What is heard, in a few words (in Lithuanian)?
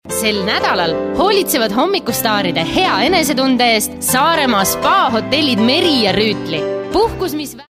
(MOT) Estų balsas